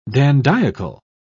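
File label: "dandiacal", British English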